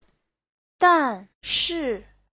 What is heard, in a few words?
"dan4 shi4", chinese